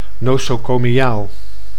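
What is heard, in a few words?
"nosocomiaal", Dutch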